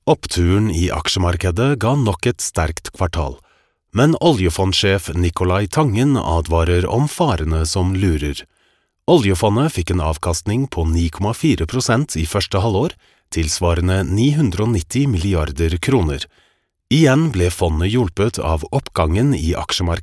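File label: Norwegian, male, narration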